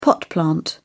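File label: "pot plant", British English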